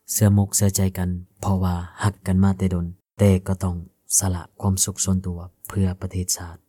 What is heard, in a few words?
Lao male; Foreign language voice over